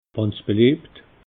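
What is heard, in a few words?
"wanns beliebt", Alsatian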